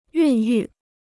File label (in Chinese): "孕育", Chinese